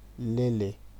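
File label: "lele", Nigerian Pidgin, male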